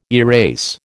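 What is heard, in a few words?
"erase", American English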